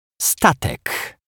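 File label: "statek", Polish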